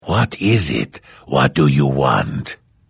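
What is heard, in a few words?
Hello, Vo; Morrowind GOTY GOG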